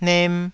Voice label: "nem2", taiwanese

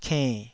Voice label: "kenn3", taiwanese syllables

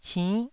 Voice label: "cinn9", Taiwanese

